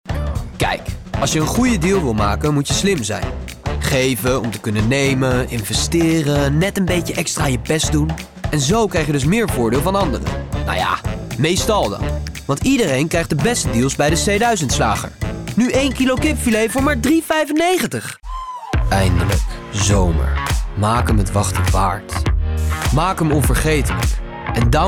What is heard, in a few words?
NL VO